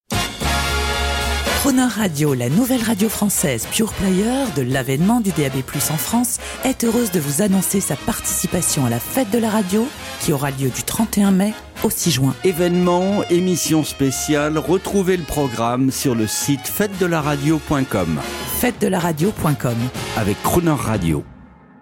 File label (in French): SPOT CROONER annonce courte